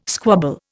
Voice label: "squabble", Indian